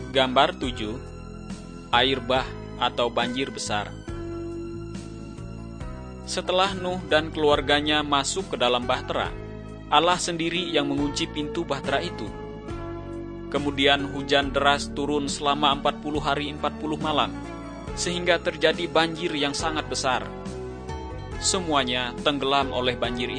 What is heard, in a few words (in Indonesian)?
kabar baik good news bahasa indonesia